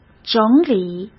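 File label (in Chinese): "整理", Mandarin Chinese; chinese-flashcards; hsk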